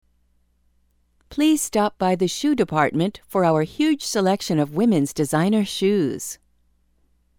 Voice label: Dept Stores, sample messages for business; escalators